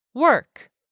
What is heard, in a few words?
"work", English; 3000 words